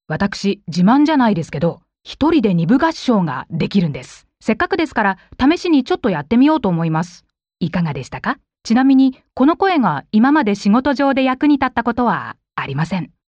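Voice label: Japanese, female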